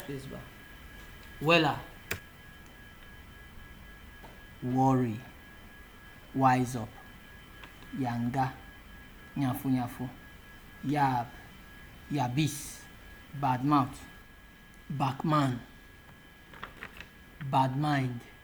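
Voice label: worry, Nigerian Pidgin, male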